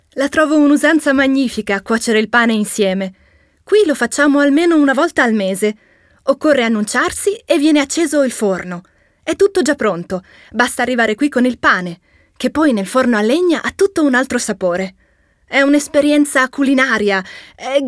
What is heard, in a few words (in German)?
OFF Voice CH IT